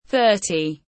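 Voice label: "thirty", English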